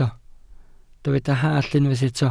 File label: "hallt", Welsh